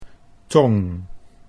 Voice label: "tong", Dutch

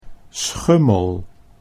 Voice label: "Schummel", Dutch

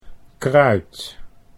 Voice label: "kruit", Dutch

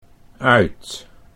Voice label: "uit", Dutch

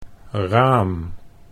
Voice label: "raam", Dutch

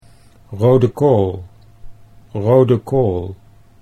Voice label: rode kool, Dutch

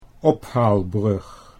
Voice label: "ophaalbrug", Dutch

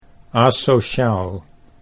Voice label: "asociaal", Dutch

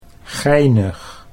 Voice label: "geinig", Dutch

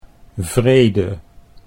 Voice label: "vrede", Dutch

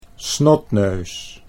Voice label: "snotneus", Dutch

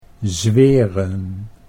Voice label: "zweren", Dutch

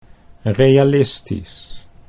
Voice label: "realistisch", Dutch

